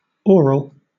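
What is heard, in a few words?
"oral", British English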